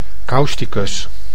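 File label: "causticus", Dutch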